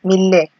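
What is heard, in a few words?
"mile", Nigerian Pidgin, female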